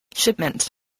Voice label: "shipment", spelling